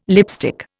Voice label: "lipstick", Indian